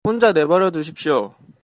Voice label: leave me alone, Korean